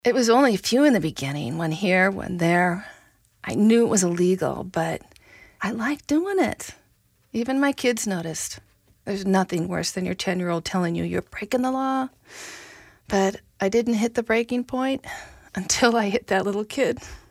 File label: Texting and Driving Radio